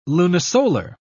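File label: "lunisolar", British English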